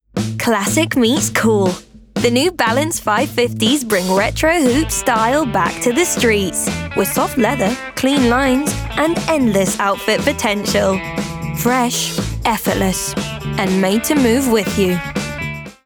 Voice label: RP ('Received Pronunciation'), Conversational, Bright, Upbeat, Natural; New Balance 550s